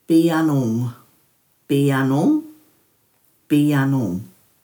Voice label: "biyanhung", Dhurga